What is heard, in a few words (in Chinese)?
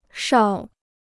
"哨", Chinese